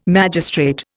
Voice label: "magistrate", Indian

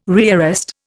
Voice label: "rearrest", Indian

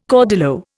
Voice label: "caudillo", Indian

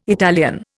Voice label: "Italian", Indian

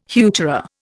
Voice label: "heuchera", Indian

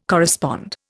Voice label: "correspond", Indian

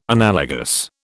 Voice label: "analogous", British

